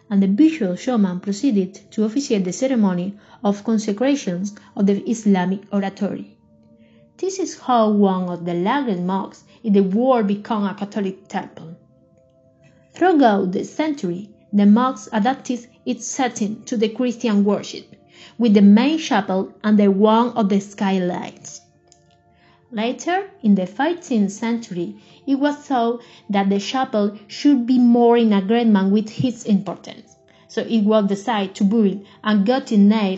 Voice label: audio guide cathedral cordoba